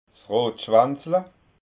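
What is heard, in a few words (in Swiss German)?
"rotschwanzla", Alsatian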